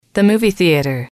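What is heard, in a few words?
the move theater, female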